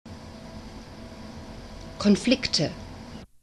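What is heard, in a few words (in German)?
"Konflikte", German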